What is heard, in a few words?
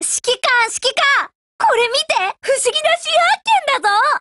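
HELLO Japanese, Voice; GF Alarm; K11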